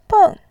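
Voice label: "beng", Mandarin Chinese, tone 4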